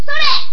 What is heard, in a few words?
Warrior Voice